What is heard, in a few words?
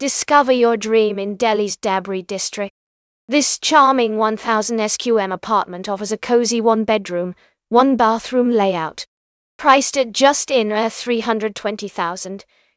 narration audio